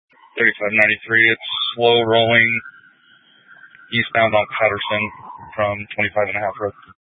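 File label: Police 1 Dispatch, Scanner audio recording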